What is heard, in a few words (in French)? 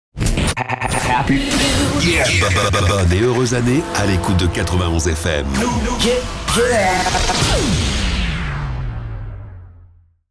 Jingle Bonne et heureuse année